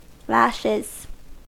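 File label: "lashes", American English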